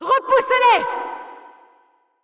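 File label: French, assist; VO; Irelia; bloom minigame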